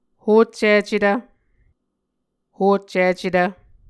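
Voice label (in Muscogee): "hoccicetv", Muscogee word; Mvskoke Words with Repeated Consonants; A Dictionary of Creek/Muskogee; SIL International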